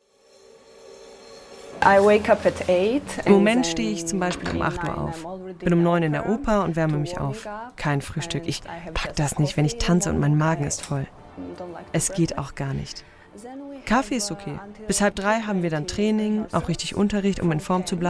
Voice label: Voice Over